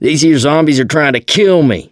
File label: going to die, survivor voice mechanic